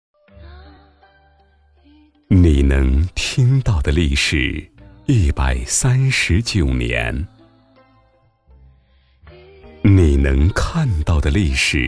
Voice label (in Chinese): 男 品牌广告; 国窖1573